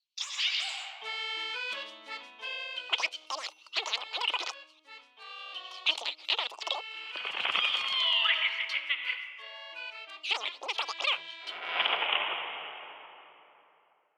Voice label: Halloween Radio Commercial